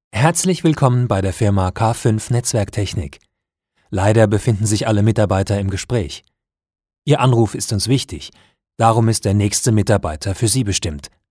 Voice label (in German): Telefon